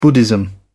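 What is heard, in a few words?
"buddhism", English, British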